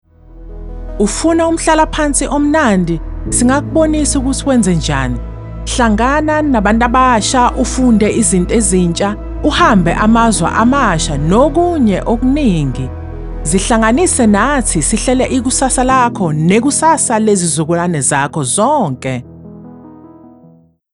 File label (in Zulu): Zulu Conversational; Retirement